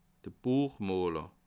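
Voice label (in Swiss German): "buechmoler", Bas Rhin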